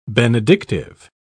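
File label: "benedictive", English, British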